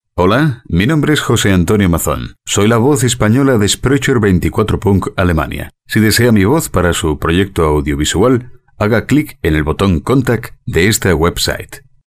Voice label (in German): espagnol